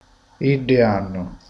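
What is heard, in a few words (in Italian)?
"essi anno", Italian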